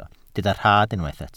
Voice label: "rhad", Welsh